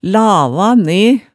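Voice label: "lava ne", Hemsedal dialect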